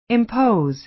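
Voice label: "impose", American English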